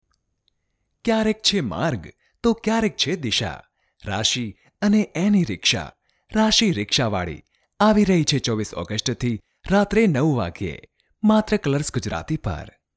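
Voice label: Gujarati, male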